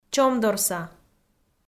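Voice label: "чомдорса", Komi, female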